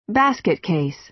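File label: "basket case", American English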